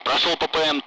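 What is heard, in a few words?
Speech, Russian; Allied Flight; passing waypoint; DCS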